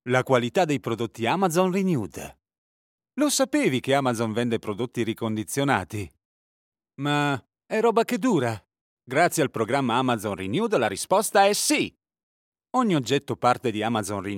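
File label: Italian commercial; AMAZON RENEWED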